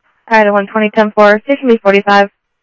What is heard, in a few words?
South Scottsdale Sheriff, scanner audio